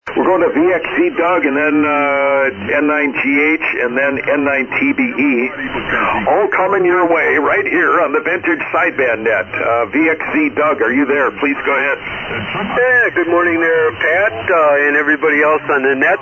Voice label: Yaesu FT-101EE & D-104 Mic; Hustisford, Wi.